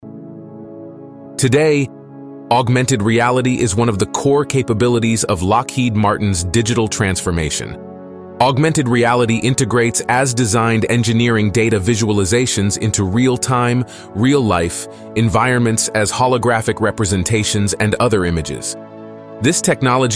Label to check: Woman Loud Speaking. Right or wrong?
Wrong